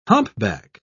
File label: "humpback", pronounce